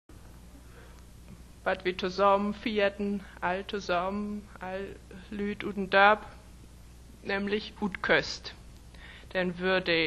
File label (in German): Mecklenburgisch; Niederdeutsch